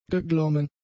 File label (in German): "geglommen", german; adjectives